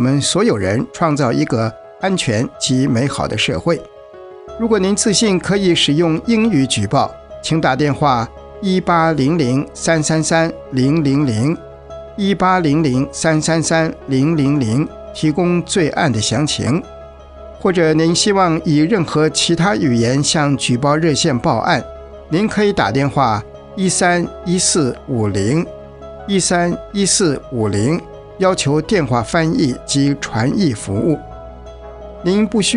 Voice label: Mandarin Crime Stoppers

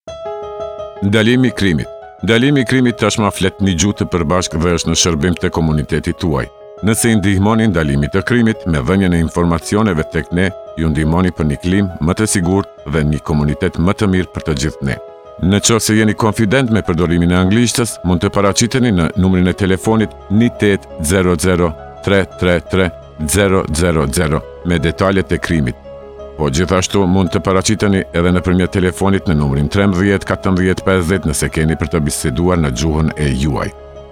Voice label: Albanian Crime Stoppers

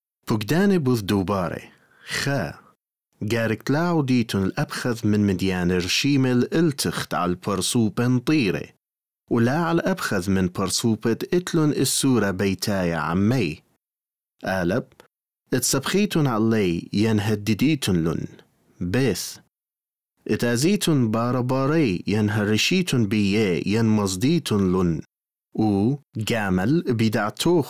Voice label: assyrian; orders about behaviour

